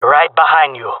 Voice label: following friend, jungle male; player vo; Counter Strike Model Pack; CS:GO